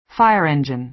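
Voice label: "fire engine", American English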